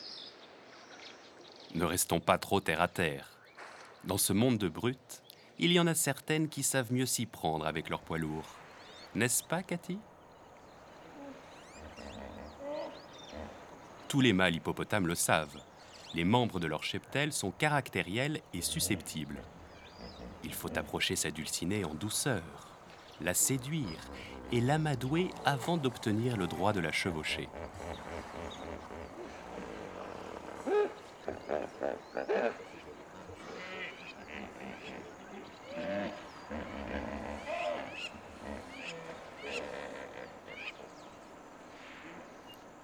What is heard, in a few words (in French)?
voix documentaire